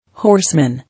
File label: "Horseman", English